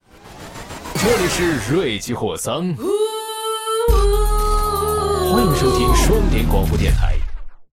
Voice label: Mandarin; 瑞奇（双点校园）; 开场白